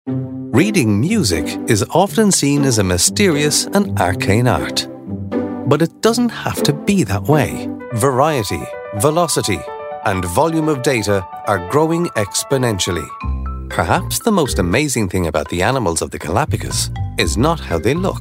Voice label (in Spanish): Masculino